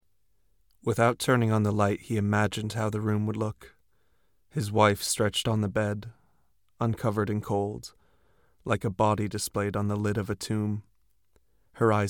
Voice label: Home Studio Read; home studios